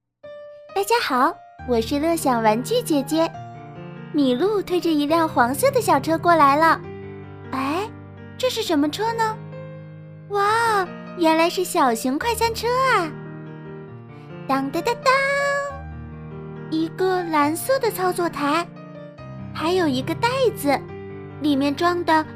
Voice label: 玩具解说

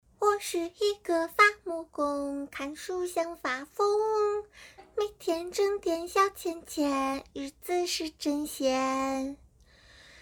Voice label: 游戏 试音 萝莉音; 幸存者

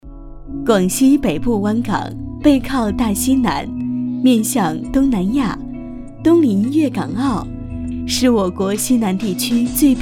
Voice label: 专题 两种声线; 钦州港

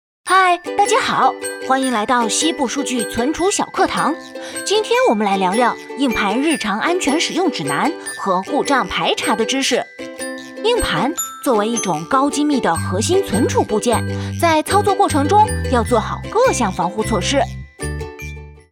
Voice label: 男童

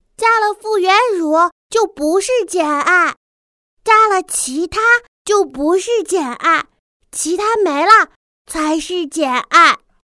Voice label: 岁女童声

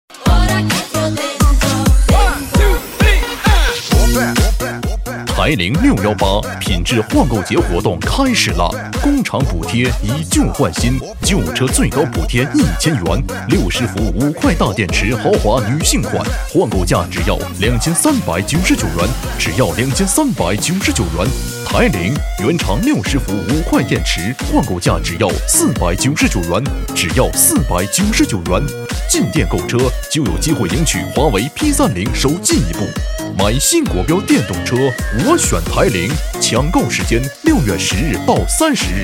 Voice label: 促销; 台铃

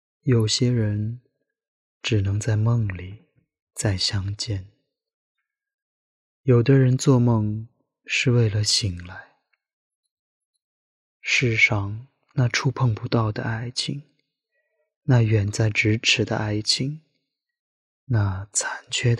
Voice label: 文案配音; 于意云何

